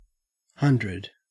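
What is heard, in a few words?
"hundred", Australian English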